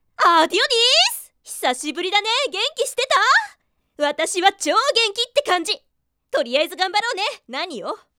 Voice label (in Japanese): genki